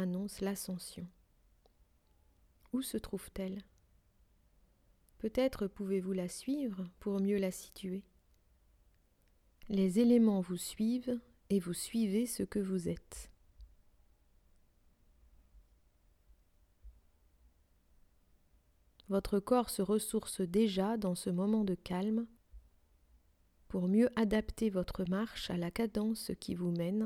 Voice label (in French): Montagne Animal Respiration Femme Sans musique